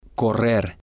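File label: "correr", spanish, male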